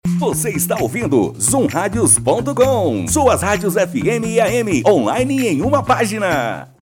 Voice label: radios spot